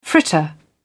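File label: "fritter", British English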